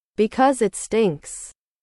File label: english